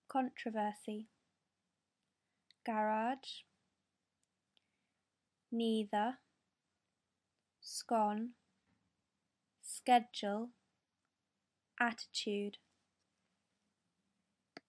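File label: haslemere surrey uk female, accent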